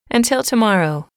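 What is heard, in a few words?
"until tomorrow", English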